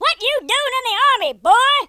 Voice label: boring, Drill Sergeant, speech

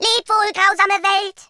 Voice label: byebye, German

